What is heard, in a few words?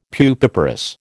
"pupiparous", American